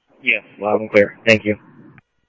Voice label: Interop Mutual Aid, scanner audio; ThompsonPeakHigh; G1 Interop

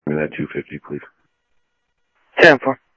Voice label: scanner audio, Sheriff; ThompsonPeakScot; Sheriff-EastCnty; MCSO - EAST

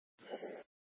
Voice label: Fire Dispatch; H- Scottsdale

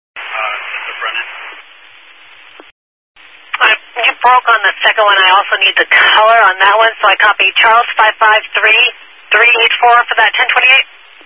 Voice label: Highway Patrol, scanner

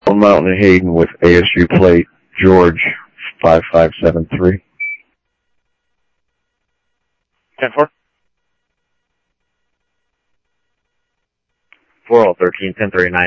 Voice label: Police, scanner audio

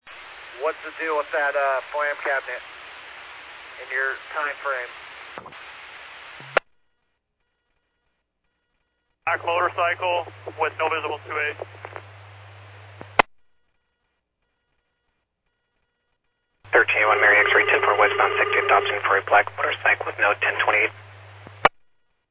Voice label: Medevac, scanner; NativeAirAmb

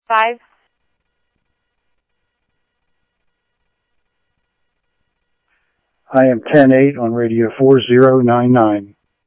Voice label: Security, scanner audio

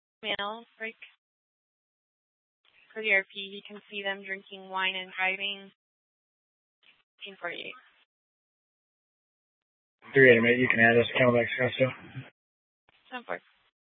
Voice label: Scottsdale PD Sth Dispatch, scanner

